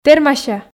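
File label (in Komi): "тэрмася", Komi, female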